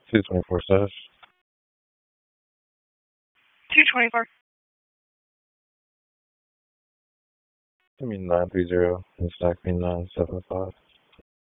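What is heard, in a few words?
Police Northeast District Disp, radio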